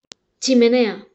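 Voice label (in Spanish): "chimenea", Spanish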